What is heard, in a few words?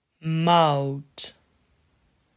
"Mout", Dutch